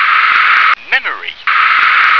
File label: "memory", English